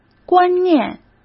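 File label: "观念", Mandarin Chinese; chinese flashcards; hsk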